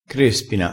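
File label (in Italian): "crespina", dialettale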